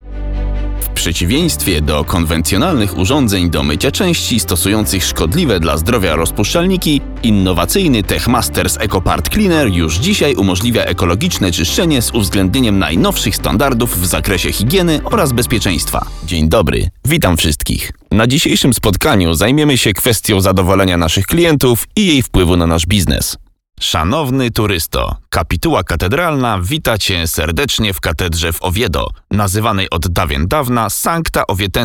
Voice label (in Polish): prezentacje e learning IVR